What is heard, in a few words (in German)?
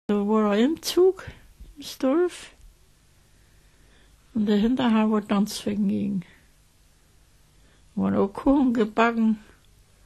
Lausitzisch; Mitteldeutsch